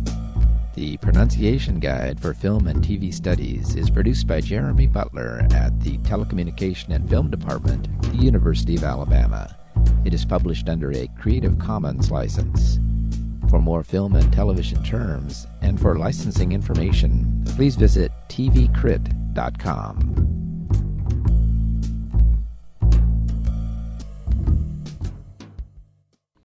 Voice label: cardioid microphone